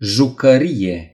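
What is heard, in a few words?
"toy", Romanian; gcompris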